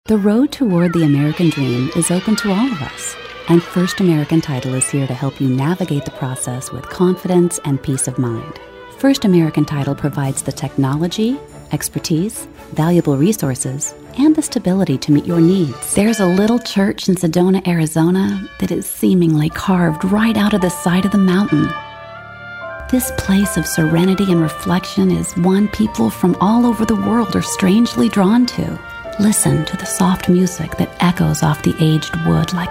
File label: Narration